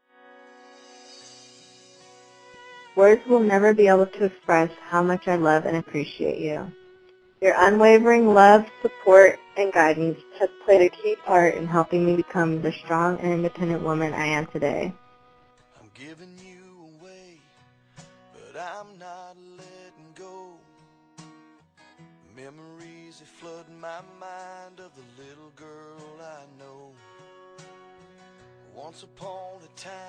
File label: Father Daugher Dance Voice Over